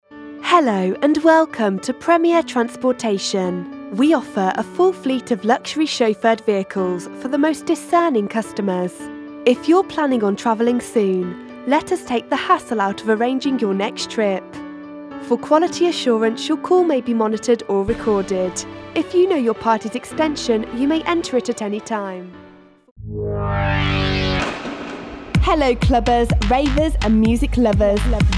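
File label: "hola", British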